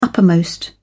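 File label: "upmost", British English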